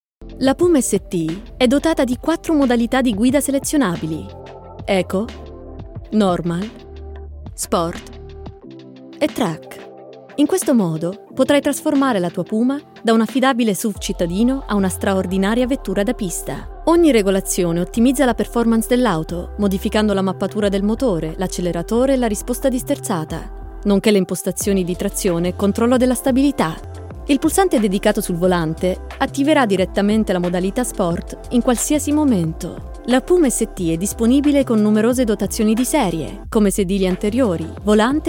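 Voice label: Italian, Corporate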